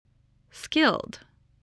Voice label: "skilled", voice, spelling